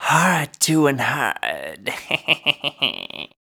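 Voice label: vocal male, Dance Vocals, spoken words; Best Service ProSamples vol.12; AKAI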